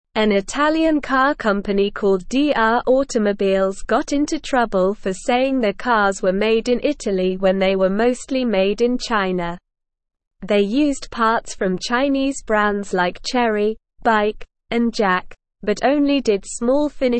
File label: English SLOW Reading; Italian Car Company Fined for Misleading Customers